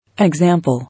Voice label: "Example", English

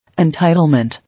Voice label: "Entitlement", English

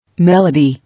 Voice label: "Melody", English

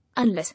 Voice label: "Unless", English

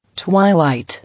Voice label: "Twilight", English

